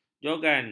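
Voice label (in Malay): "jogan", Malay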